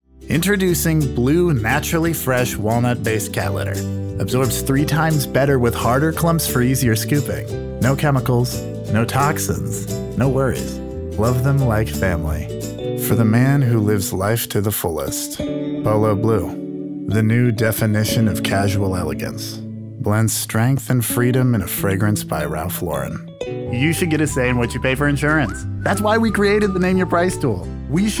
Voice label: commercials